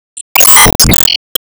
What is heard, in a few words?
Emo Surprise